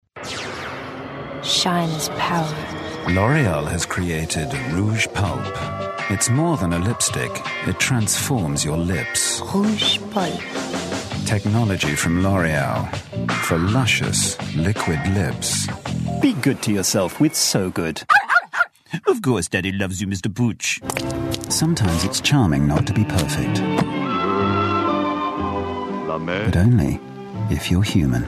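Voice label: British English, male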